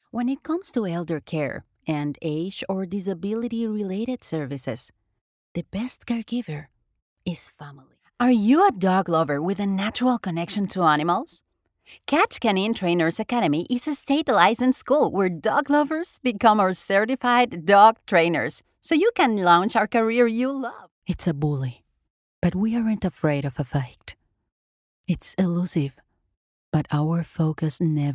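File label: English Narration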